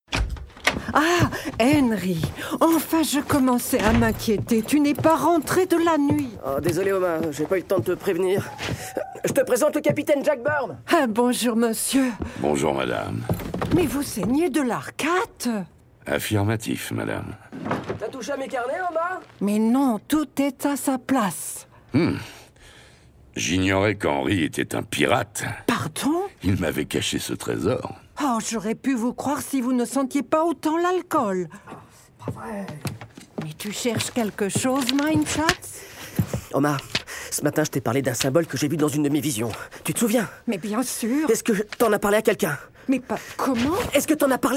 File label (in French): Fiction Radio podcast Accent Allemand comedie; Jack Burn et l'heritier de l'arc